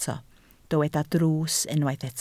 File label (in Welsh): "drws", Welsh